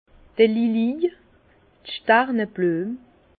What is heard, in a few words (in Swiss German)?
"lilij", Bas Rhin; vin; lys